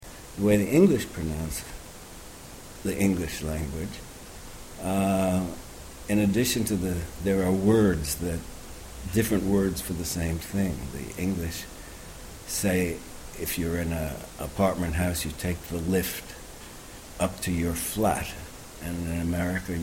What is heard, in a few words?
US GB pronunciation